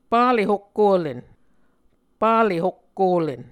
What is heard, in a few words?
"pale hokkolen", Muscogee